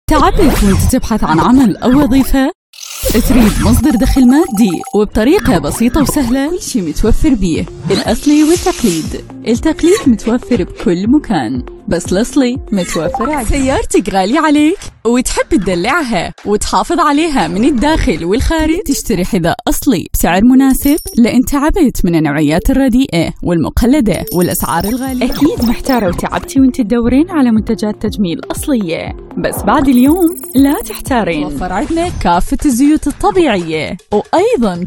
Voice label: Iraqi Dialect